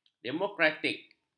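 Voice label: "demokratik", Malay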